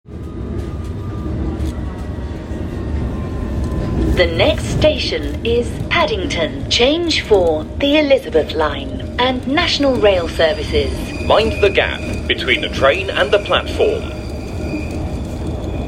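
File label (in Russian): сообщение изнутри поезда прибывающего на вокзал Paddington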